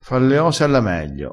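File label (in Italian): "fa le ose", vocabolario dialettale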